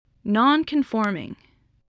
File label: "nonconforming", voice